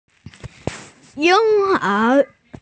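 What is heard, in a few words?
"infant", female; ying1er2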